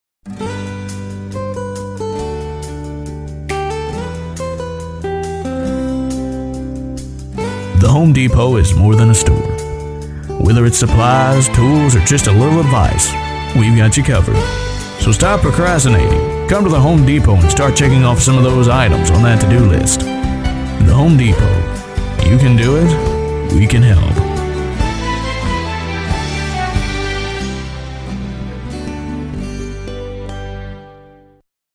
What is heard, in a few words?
Commercial V O Professional; The Home Depot